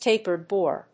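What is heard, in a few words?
"tapered bore", English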